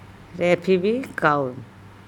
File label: rEfebi kao bring rice, andamanese